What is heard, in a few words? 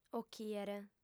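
"ochìere", female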